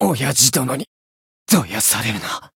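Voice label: win barely, Japanese; shigure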